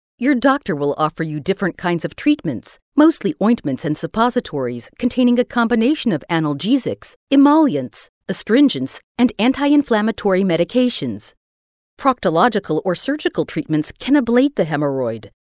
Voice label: E Learning

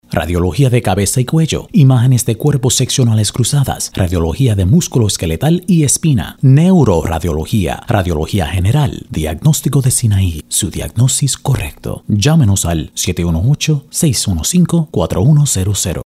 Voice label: medical voice over; Sinai

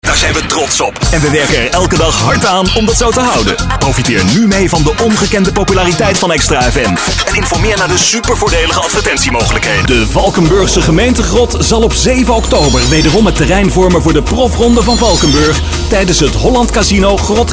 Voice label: promos

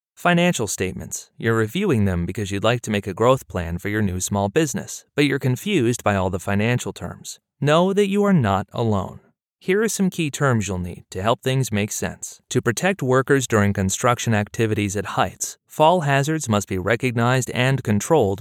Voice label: eLearn

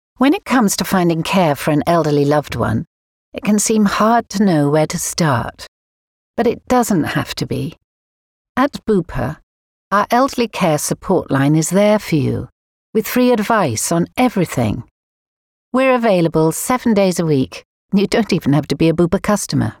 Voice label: Dry Commercial

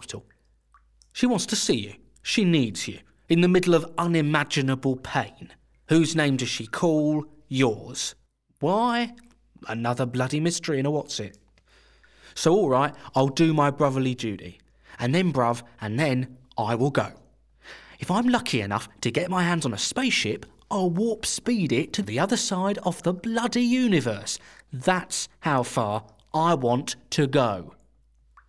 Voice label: Leaves of Glass Cockney

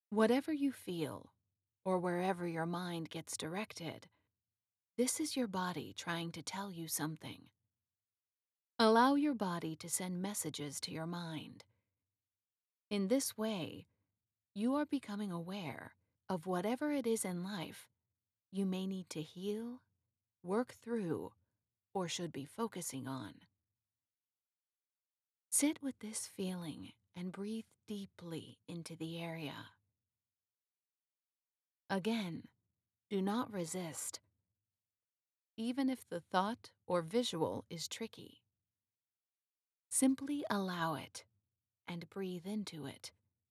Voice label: Sample Meditation

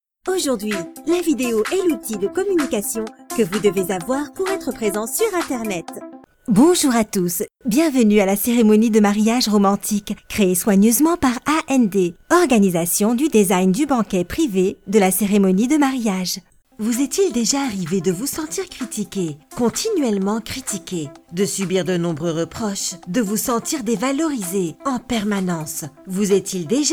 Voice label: corporate video

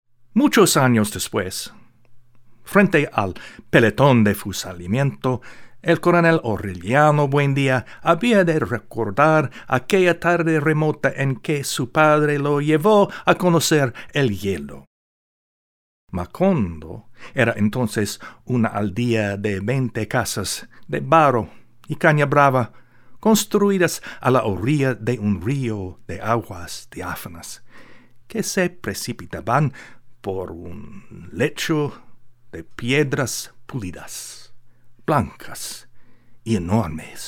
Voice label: Spaniol Dialect

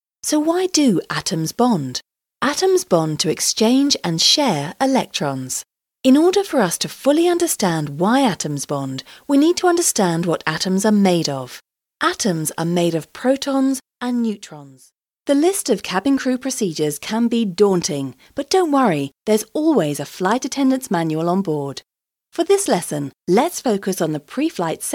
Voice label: Training e-learning

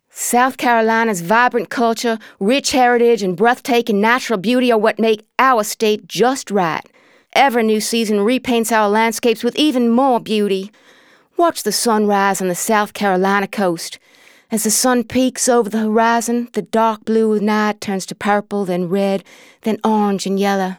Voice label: southern american

